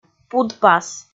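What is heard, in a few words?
"пудпас", Komi, female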